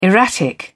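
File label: "erratic", British English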